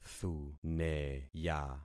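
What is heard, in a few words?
"thuneya", concatenated syllables; Affixing experiment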